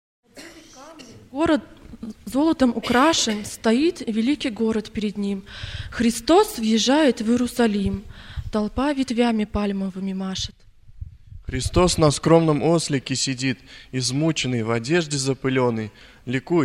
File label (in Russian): Декламация; Пасхальные Собрания Ташкент-2009; Вербное Воскресение - Утро